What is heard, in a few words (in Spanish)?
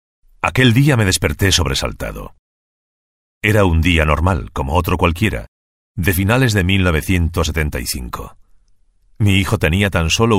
Masculino; Sonoarte